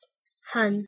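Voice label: "hon", English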